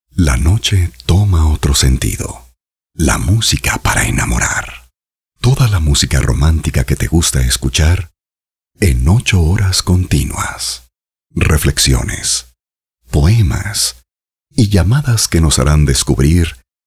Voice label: locucion tono romantica sin musica

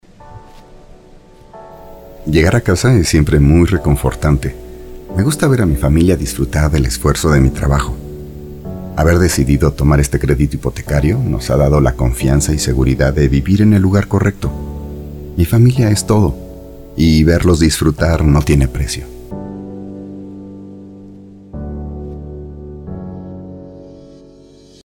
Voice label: locucion tono natural locutor con musica

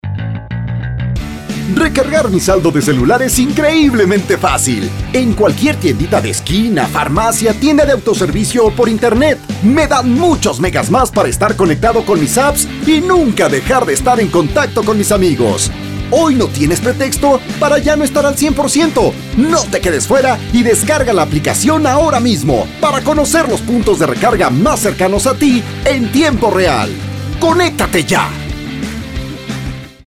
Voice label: locucion tono juvenirl vendedora con musica

